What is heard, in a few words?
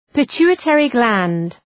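"pituitary gland", English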